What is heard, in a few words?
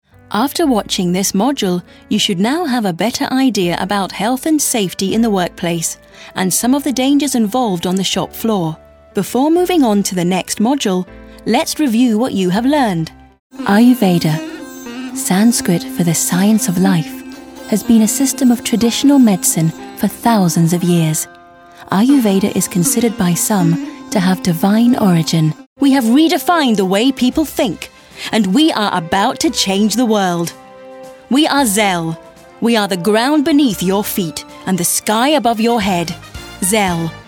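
British, narration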